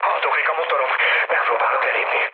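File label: Hungarian damaged engine mood med, speech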